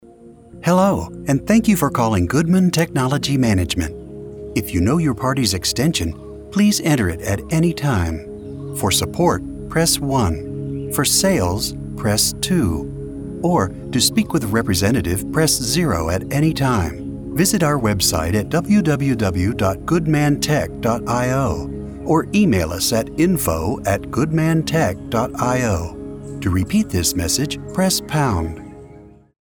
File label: English American IVR